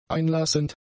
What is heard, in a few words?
"einlassend", German; adjectives